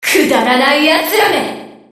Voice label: Enemy Voice Damaged Attack